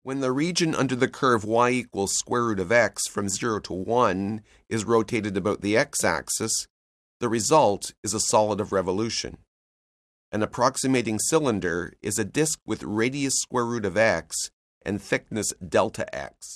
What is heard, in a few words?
narration; CALCULUS 7E; cengage